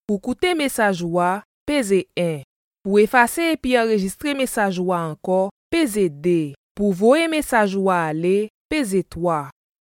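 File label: Haitian Creole, General; GoLocalise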